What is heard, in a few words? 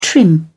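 "trim", British English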